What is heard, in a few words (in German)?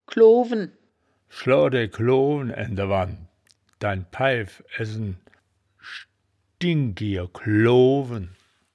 "kloowen", wittlich